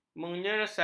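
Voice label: "menyesal", Malay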